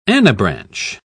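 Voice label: "anabranch", British English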